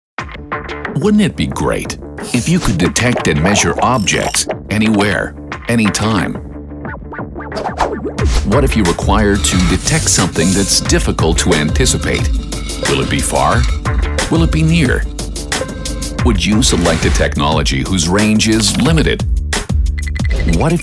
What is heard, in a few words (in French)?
Homme 35 45 ans Grave; VOIXOFF ETRANGERES